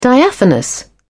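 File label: "diaphanous", British English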